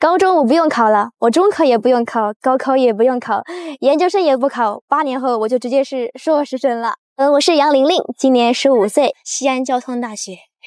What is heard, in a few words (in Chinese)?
为教育内容打造引人入胜的AI学习技巧配音